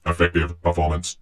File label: cheavy good shot; Dystopia; vox new